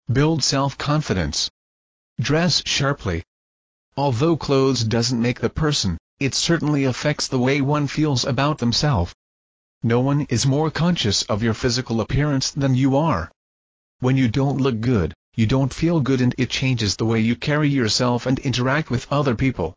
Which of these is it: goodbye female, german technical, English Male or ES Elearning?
English Male